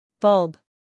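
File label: "scandal", American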